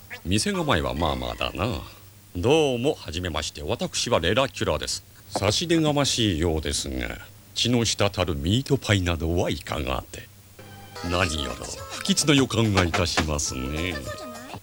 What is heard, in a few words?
voice low; 低音ボイス：レラキュラ